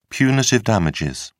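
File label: "punitive damages", British English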